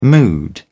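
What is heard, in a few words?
"mood", British English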